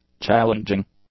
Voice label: "challenging", English; adjectives